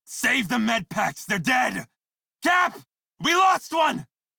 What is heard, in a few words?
Crew Died Male en; Dialog; Warframe; Railjack Crew; Red Veil